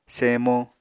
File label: "semo", Apatani